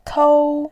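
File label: "kou1", Mandarin Chinese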